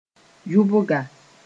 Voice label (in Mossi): "yʋbga", moore